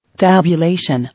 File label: "Tabulation", English